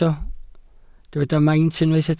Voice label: "maint" Welsh

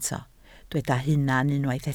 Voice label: "hunan" Welsh

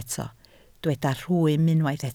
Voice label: "rhwym" Welsh

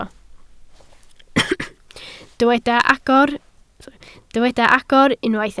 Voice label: "agor", Welsh

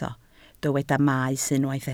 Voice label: "maes" Welsh

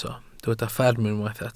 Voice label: "fferm" Welsh

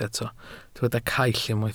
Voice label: "caill", Welsh

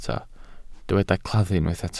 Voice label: "claddu", Welsh

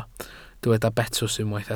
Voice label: "betws", Welsh

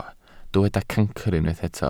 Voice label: "cancr", Welsh

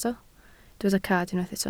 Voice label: "cad", Welsh

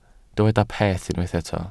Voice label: "peth" Welsh

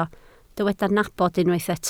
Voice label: "nabod", Welsh

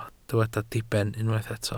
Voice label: "diben", Welsh

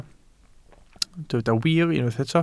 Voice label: "wir" Welsh

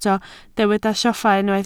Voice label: "lloffa", Welsh

